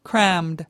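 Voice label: "crammed", US English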